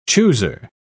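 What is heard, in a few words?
"chooser", British English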